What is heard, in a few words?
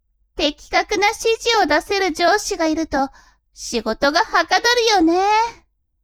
woman